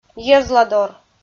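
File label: "йӧзладор", Komi, female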